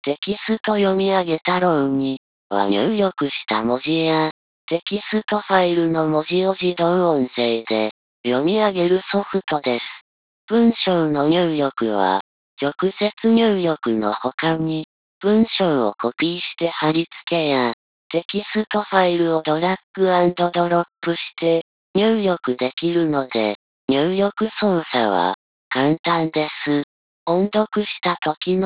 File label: neutral